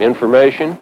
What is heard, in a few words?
LoFi Words, LoFi Vocals